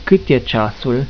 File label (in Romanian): "cit e ceasul", romanian; tijden